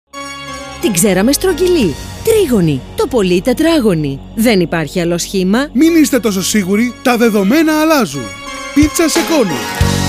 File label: radio spot